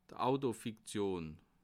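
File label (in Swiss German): "autofiktion", Bas Rhin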